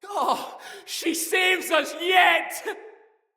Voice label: turned tides afar, soldier male, en; Battle; Barks; Dialog; Dendrit